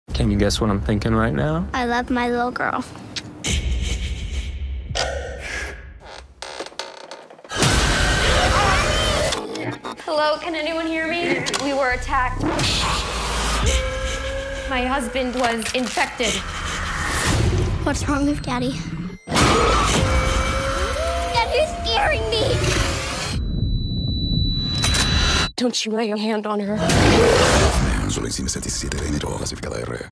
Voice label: TV Spots; Wolf Man